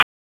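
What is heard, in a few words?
Police Special Operations Tactical; Raleigh; Spec Ops Tac 12; wake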